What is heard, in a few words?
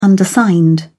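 "undersigned", English, British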